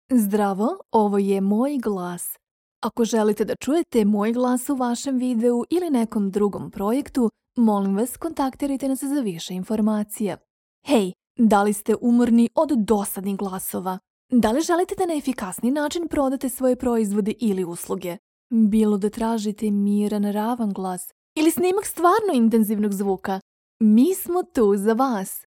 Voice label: female bosnian voice over upbeat

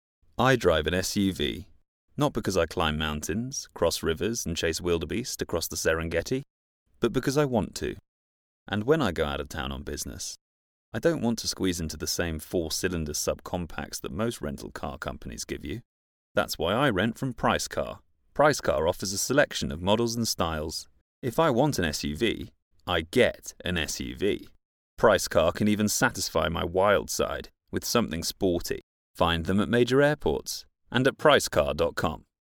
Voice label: male british voice over sales, english british

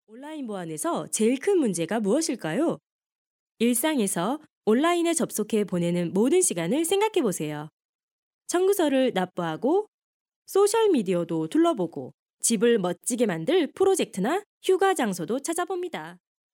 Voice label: female korean voice over upbeat

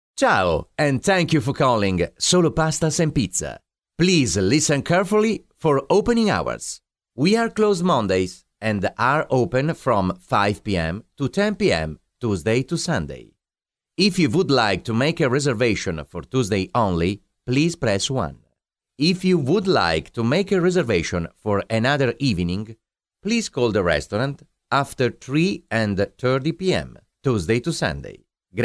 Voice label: male italian voice over english

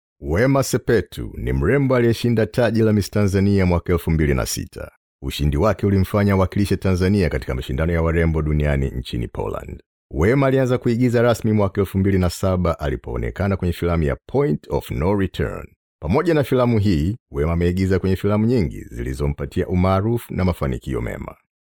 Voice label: male swahili voice over talent upbeat

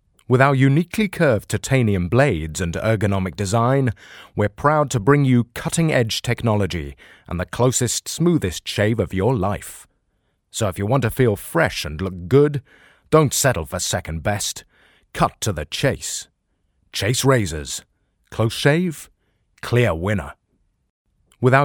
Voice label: male british voice over sales, english british

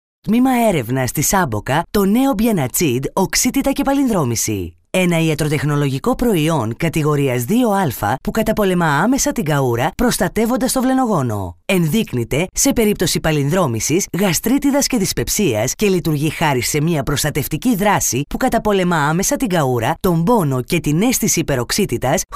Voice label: female greek voice over narrative

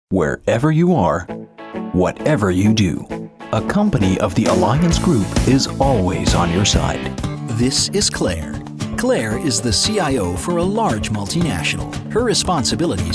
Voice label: male canadian voice over sales

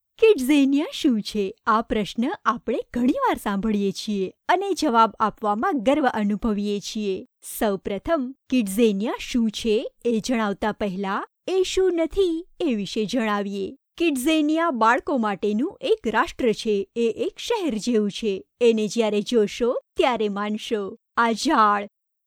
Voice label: female gujarati voice over narrative

